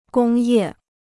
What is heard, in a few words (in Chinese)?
"工业", Chinese